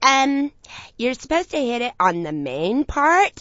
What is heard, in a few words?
um hit on main part, Commentators